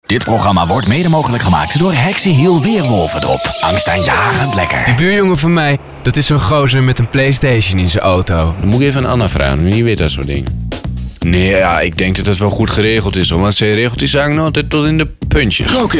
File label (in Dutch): stemdemo KARAKTERWERK